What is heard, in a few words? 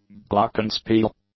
"glockenspiel", English